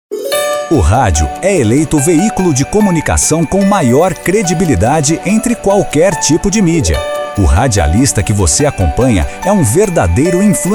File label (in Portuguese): locutor